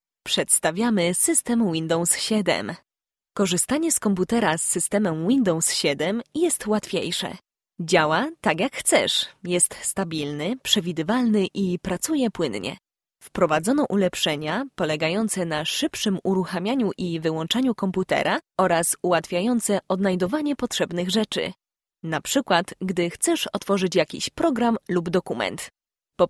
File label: female polish voice over narrative